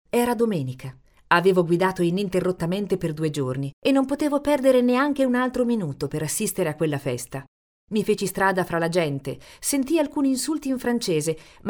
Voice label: audiobook